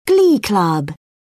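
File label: "glee club", British English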